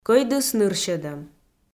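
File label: "кӧйдыс нырсьӧдӧм", Komi-Zyrian, female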